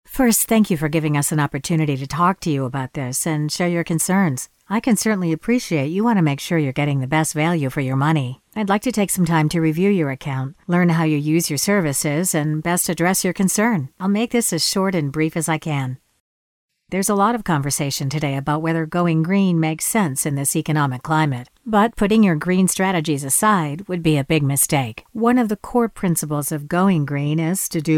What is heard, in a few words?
Conversational